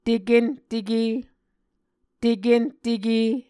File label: "tekenteke", Muscogee